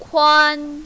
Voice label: "kuan1", mandarin, female

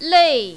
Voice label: "lei4", mandarin, female

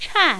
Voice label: "chan4", mandarin, female

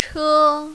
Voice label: "che1", mandarin, female

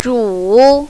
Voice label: "zhu3", mandarin, female

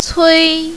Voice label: "cui1", mandarin, female

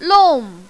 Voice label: "long4", mandarin, female

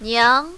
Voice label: "niang2", mandarin, female

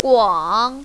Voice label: "guang3", mandarin, female

